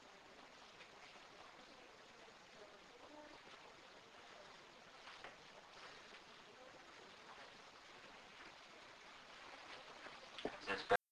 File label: Muffled Contstant Talking In Background; evp